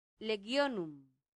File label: "legionum", latin; santillana